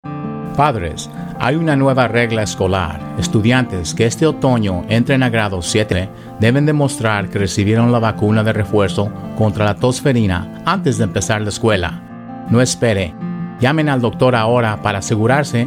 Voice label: Pertussis Radio PSA Spanish